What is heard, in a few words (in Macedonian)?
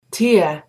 "tie", Macedonian